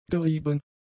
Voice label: "gerieben", German; adjectives

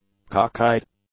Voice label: "cockeyed", English; adjectives

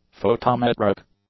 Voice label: "photometric", english; adjectives

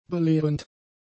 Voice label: "belehrend", German; adjectives

